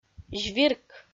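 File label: "звирк", Komi, female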